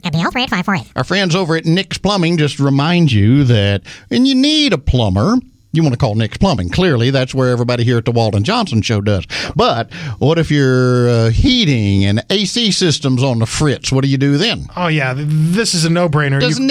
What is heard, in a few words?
RADIO AD; nicks plumbing radio ads